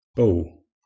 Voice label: "boG", Anglo Saxon

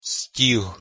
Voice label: "stih", Ukrainian

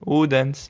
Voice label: "udens", Latvian

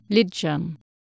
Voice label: "licgan", Anglo Saxon

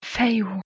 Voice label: "fehu", Proto-Germanic

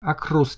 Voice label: "akraz", Proto-Germanic

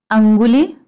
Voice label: "anguli", Sanskrit

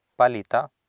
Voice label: "palita", Sanskrit

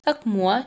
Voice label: "akmuo", Lithuanian

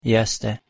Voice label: "jeste", Bosnian

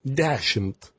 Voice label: "deSimt", Lithuanian

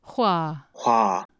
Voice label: "hwa", Anglo Saxon

